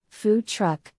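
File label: "food truck", English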